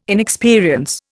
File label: "inexperience", Indian